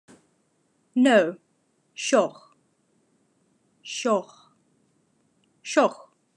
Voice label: Khakas No